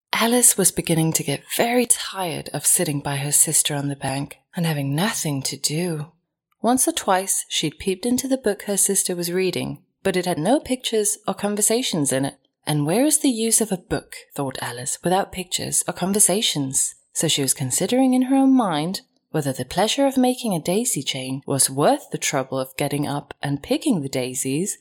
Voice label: Danish English